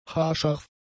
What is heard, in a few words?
"haarscharf", German; adjectives